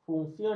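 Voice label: "fungsional", Malay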